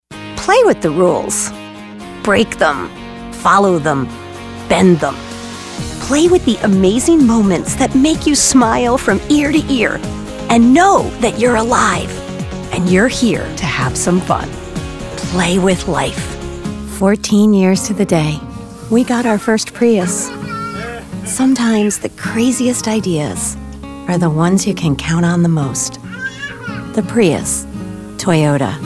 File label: commercial VO